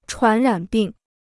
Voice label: "传染病", Chinese